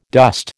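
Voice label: "dost", American English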